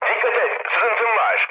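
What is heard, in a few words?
damaged water, mood med, Turkish; War Thunder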